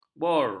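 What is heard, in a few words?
"bor", Malay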